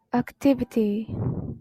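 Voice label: "activity", Japanese, female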